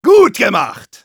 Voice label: Engineer cheers

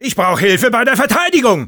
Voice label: Sniper help me defend, de

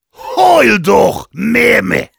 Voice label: Heavy taunts, de

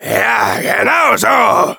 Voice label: Demoman positive vocalization, de

